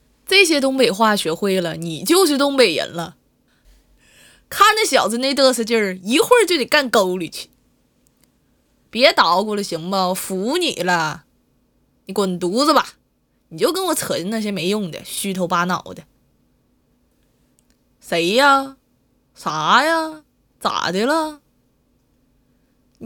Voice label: 东北话试音

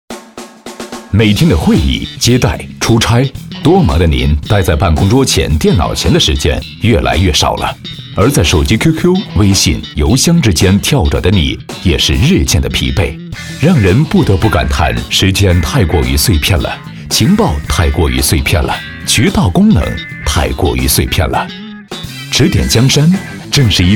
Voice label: 专题动感时尚指点江山应用

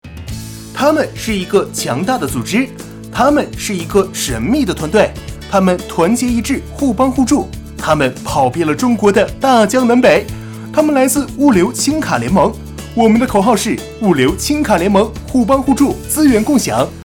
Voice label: 年轻活泼综艺感

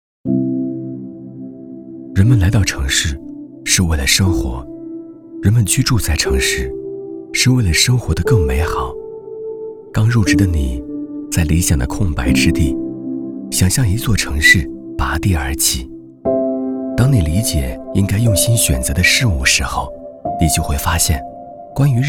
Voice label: 旁白品质广告自然走心; 东风日产启辰大

